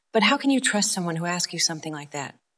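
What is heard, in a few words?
Voice Acting es Female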